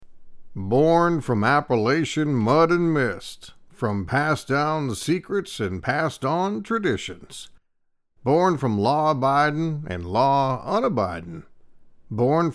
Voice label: whiskey narration